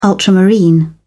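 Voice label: "ultramarine", British English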